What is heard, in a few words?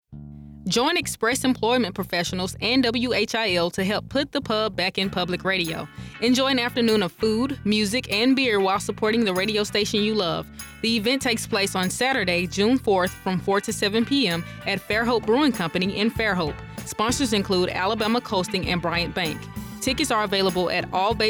promo; National Public Radio